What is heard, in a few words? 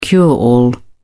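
"cure-all", British English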